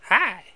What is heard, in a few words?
SETBACK (high); Spades Deluxe; Jared